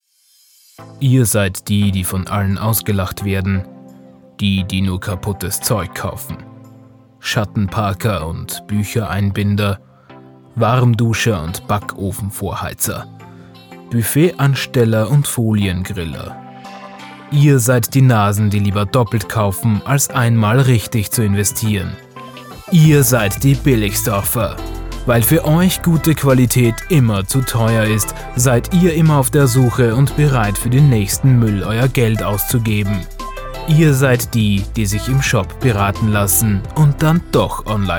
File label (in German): Werbung music; Billigsdorf